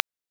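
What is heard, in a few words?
Police Northwest District Tac, radio; Raleigh; Tac 1; wake; p25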